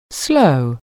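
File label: "slow", English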